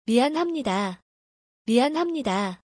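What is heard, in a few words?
"mianhamnida", Korean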